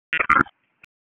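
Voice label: EMS Dispatch; wake; p25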